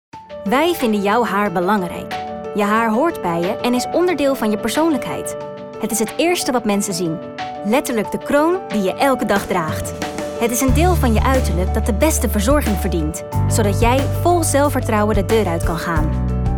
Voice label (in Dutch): NEUTRAAL